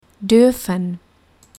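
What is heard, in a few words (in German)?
"dürfen", German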